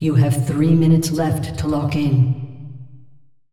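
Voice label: announcer female, pt br; Clash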